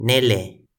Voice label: "nele", Toki Pona